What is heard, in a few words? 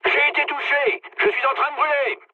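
French on fire mood low; War Thunder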